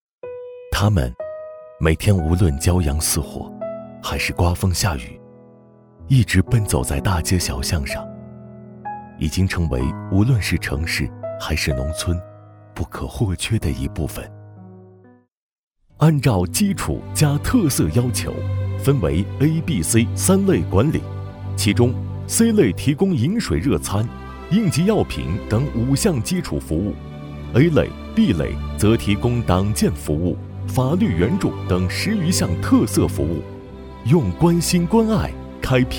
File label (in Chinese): 旁白; 绿谷先锋驿站